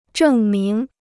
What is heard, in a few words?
"证明", Chinese